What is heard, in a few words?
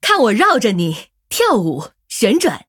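Female, Chinese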